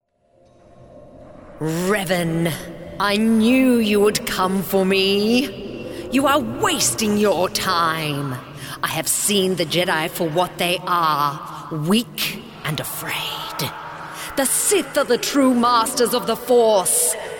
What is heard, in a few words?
Video Game Character; Revan